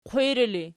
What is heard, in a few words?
"qwiri", Archi